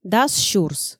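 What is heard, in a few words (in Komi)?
"дас сюрс", Komi, female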